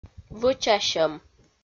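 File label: "вӧчасьӧм", Komi, female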